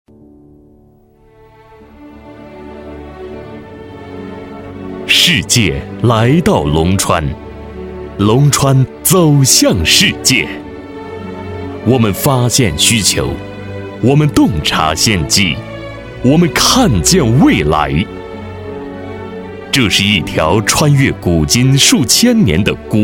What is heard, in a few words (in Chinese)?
男 传统宣传片 专题; 龙川